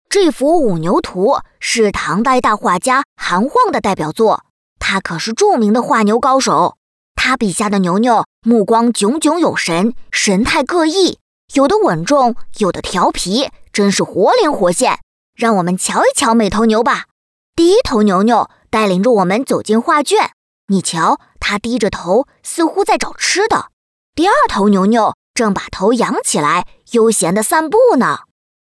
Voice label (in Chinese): 角色 男童